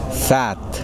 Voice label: "sun", Kosraean